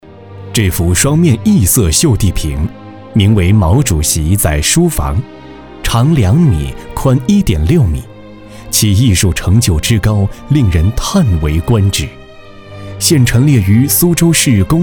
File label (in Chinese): 男 中文 男 宣传片 非遗